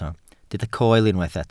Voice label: "coel", welsh